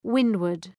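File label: "windward", English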